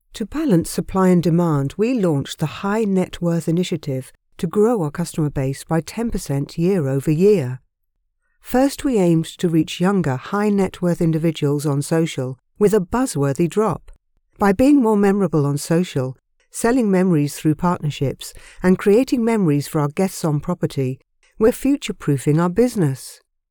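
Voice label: British Luxury Posh, Natural Speak, Dry Studio Sound, Female Voice Over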